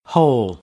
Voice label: "whole", American, English; oʊ - əʊ